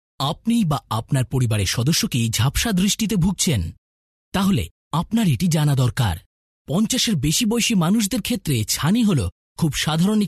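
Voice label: Bengali, male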